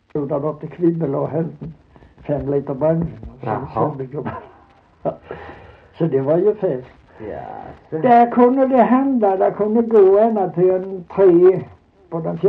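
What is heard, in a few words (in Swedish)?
Holm Halland, dialekter; Folkminnen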